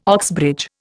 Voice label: "Oxbridge", Indian